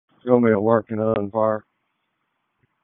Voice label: PS TAC, p25; wake

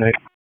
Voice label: Police Northwest District Tac 1; Raleigh; wake

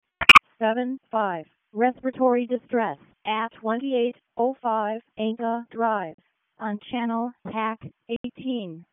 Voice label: WC EMS ALERT, p25; wake

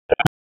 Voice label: Raleigh Police Southwest District Tac, p25

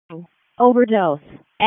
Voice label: EMS ALERT; wake

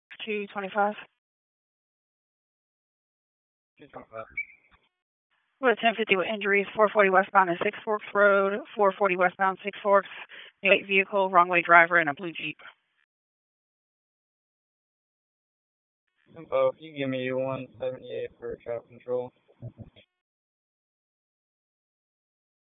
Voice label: Police North District Disp, p25; Raleigh

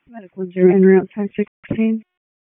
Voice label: PS TAC, p25; wake p25

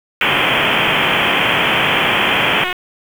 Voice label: Wake Forest Tac 3, P25 recordings

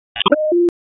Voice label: EMS ALERT, p25; wake p25

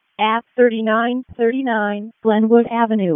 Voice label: EMS ALERT

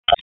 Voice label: Police Spec Ops Tac 2, P25; Raleigh; wake

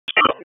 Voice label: Police Southeast District Tac; Raleigh; Tac 2

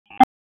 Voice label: Raleigh Police Northwest District Tac, p25; wake p25

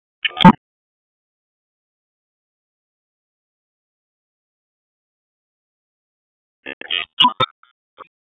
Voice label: Raleigh Police Northeast District Tac, p25

